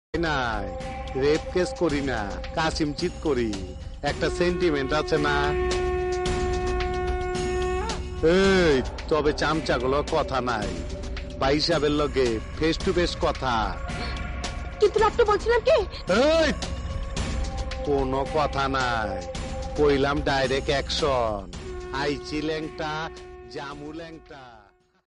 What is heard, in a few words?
dailogue ringtone funny ringtone; dipjol